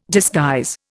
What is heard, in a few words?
"disguise", Indian